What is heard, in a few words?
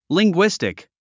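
"linguistic", English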